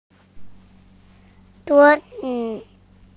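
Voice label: "zhuo1 zi5", Mandarin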